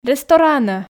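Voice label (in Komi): "ресторанӧ", Komi, female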